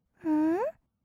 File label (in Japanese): "んー？", kawaii voice; ASMR; 色々なワンショット